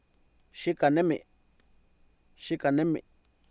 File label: "xikah nemi", Oapan, male; Oapan minimal pairs 2000